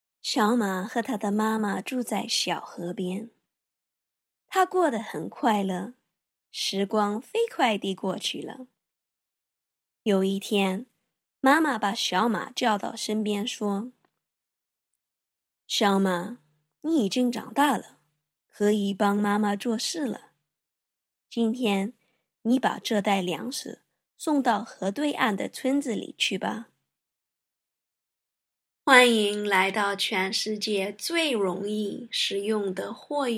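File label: Chinese general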